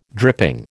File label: "dripping", American